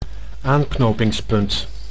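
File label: "aanknopingspunt", Dutch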